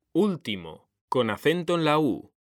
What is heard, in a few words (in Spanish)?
"ÚLTIMO", H; mekanta